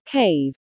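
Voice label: "cave", English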